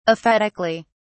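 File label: "aphetically", British English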